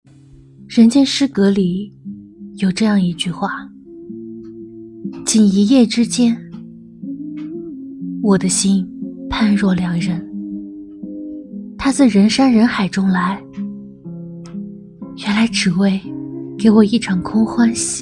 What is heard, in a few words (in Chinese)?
独白